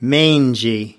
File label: "mangy", us